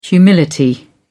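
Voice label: "humility", English, British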